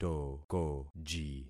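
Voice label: "tokoji", concatenated syllables; Affixing experiment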